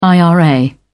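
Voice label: "ira", English, British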